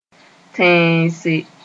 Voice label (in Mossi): "tẽese", Moore